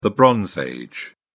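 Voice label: "bronze age", British English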